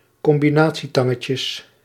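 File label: "combinatietangetjes", Dutch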